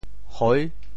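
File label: "hoi6", Teochew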